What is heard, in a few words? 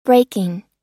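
"braking", English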